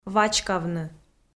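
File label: "вачкавны", Komi, female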